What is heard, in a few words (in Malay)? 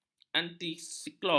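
"antisiklon", Malay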